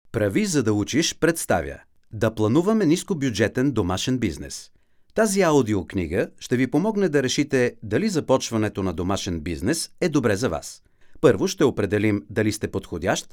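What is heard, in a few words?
Bulgarian, male, narration; voice demos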